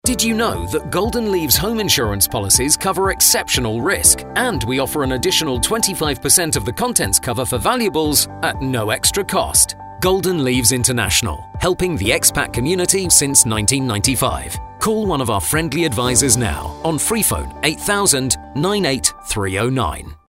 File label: home male